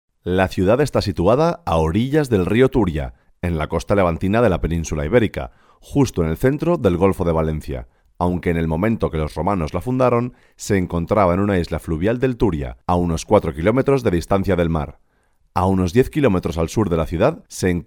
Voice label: locutor spanish voiceover